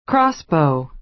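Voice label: "crossbow", American English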